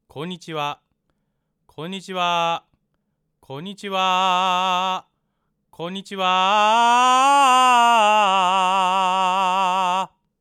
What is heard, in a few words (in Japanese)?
話し言葉 地声